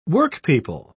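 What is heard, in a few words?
"workpeople", British English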